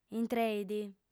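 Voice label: "intreit", female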